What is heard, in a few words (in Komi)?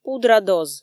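"пудра доз", Komi, female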